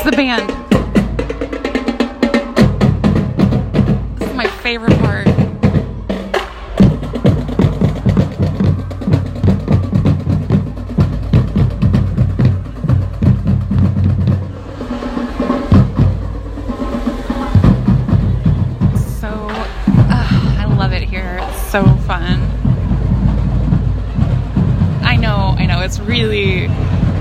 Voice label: F4M, Ambient Noise, Parade Noise, Homecoming Parade; I Love a Parade; GFE; Small Town